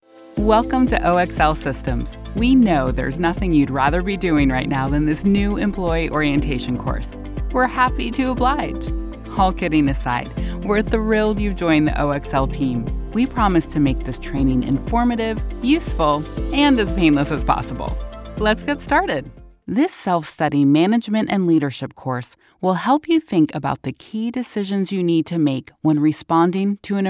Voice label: eLearning

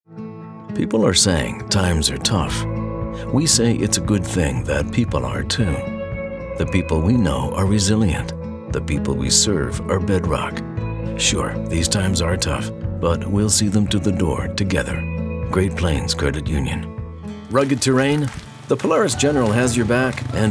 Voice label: Commercial

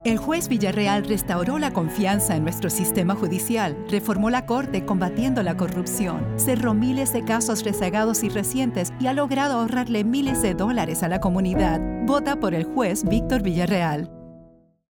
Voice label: Spanish Political Ad; Judge Victor Villareal

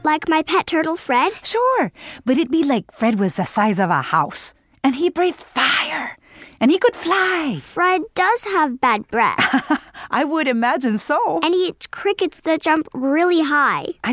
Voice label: Accented English; English - Children's Narration; Fred is a Dinosaur

